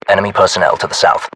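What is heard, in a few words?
threat infantry south, British; english; uk; localized english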